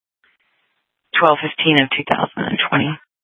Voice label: Sheriff, scanner P25